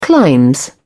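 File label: "clime", British English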